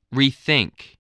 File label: "rethink", English